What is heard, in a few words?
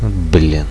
ups, radio bot